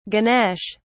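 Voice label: "ganesh", English, British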